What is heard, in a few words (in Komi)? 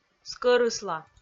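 "скӧрысла", Komi, female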